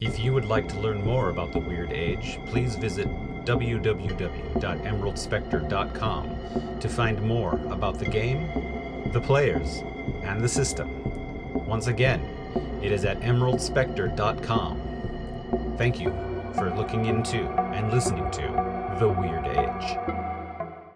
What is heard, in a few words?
ad